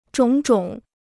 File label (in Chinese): "种种", Chinese